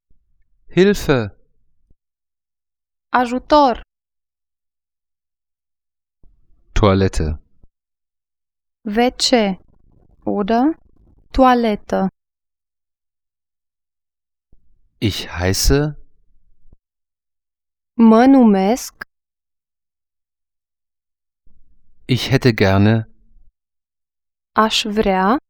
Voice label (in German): rumaenisch